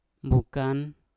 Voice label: "mbukan", Mpur; tete